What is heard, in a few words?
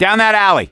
misc directional, voice; gambler